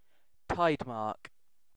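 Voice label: "tidemark", British English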